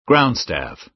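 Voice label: "ground staff", American English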